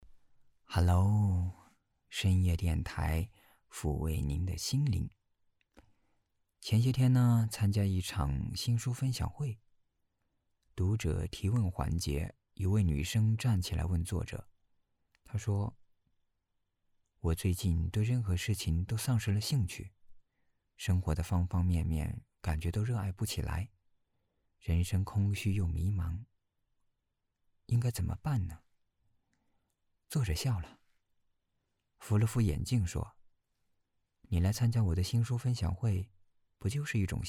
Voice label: 情感 专题 配音

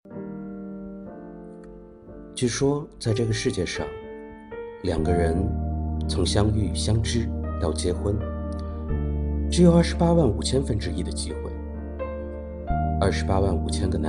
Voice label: 男配音, 青年, 普通话; 周生生

